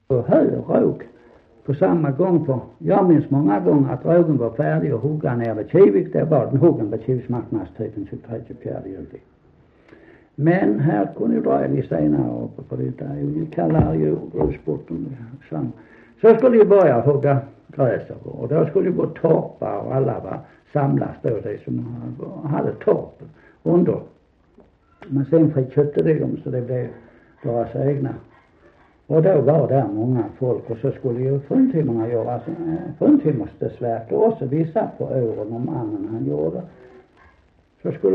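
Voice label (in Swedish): Sankt Olof Skåne, dialekter; Folkminnen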